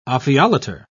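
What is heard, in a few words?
"ophiolater", British English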